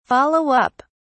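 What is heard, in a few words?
"follow up", British English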